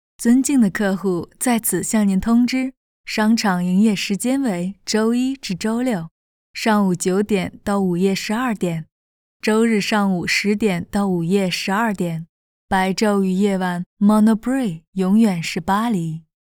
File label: chinese voiceover; Monoprix Paris